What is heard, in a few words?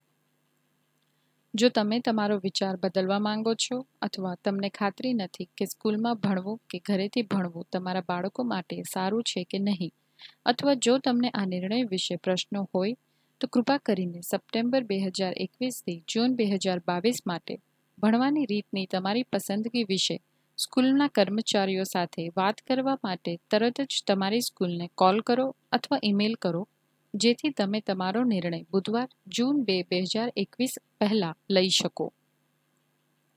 Gujarati